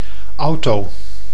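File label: "auto", Dutch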